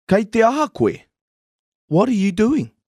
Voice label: "aha", Māori